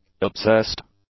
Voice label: "obsessed", english; adjectives